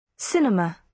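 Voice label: "cinema", British English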